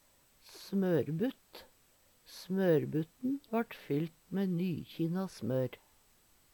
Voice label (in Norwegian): smorbutt, Numedalsmål; smørbutt; Eksempel på bruk Smørbutten vart fylt mæ nykjinna smør.